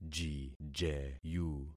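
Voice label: "jijeyu", concatenated syllables; Affixing experiment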